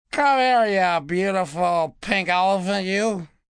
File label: Drunk, Drunk, male; Elephant; Talking Sound Effects